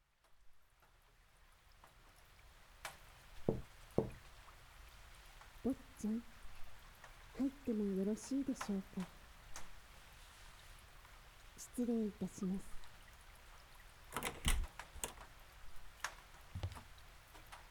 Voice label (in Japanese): 雨降りバージョン, ASMR; 洋館のクールな美人メイドと自己犠牲愛